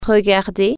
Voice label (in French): "watch", French